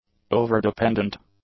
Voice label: "overdependent", English; adjectives